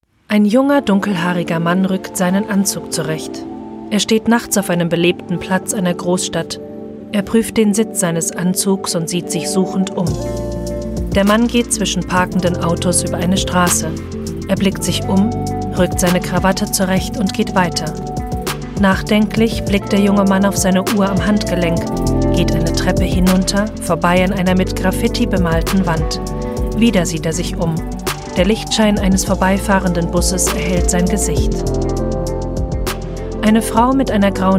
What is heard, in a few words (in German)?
Audiodeskription; Parfum; Parfum Justin Baserini